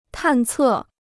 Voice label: "探测", Chinese